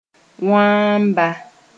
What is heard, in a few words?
"wãamba", Mooré; monkey